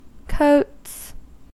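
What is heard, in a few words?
"coats", US English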